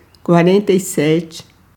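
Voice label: Portuguese numbers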